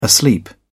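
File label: "asleep", English, British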